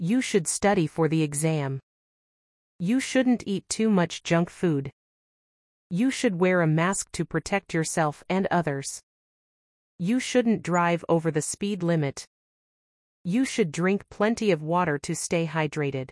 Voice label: ejemplos should not ingles; verbos modales should y shouldnt

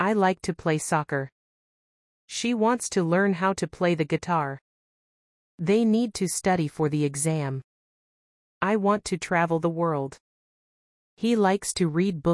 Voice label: ejemplos infinitivos ingles; repaso infinitivos

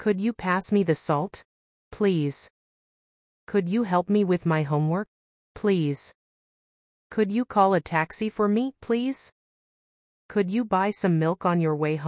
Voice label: ejemplos preguntas could ingles; clase preguntas con could

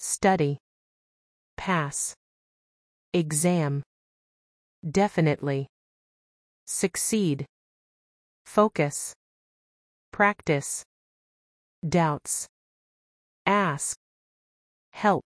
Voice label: notas de texto condicionales ingles; repaso a2; first conditional

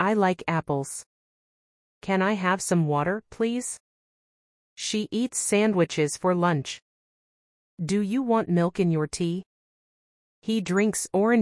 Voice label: gramatica clase ingles

